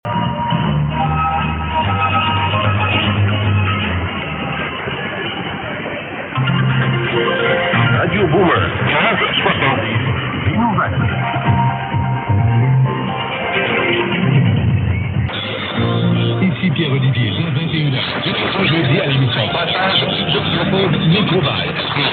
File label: radio; 1570 radio boomer